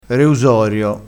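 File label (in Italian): "reusorio", vocabolario dialettale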